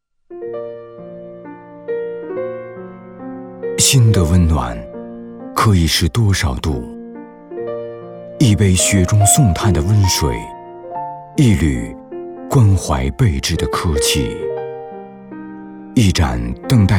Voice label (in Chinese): 男 片头片花 草原; 无影草原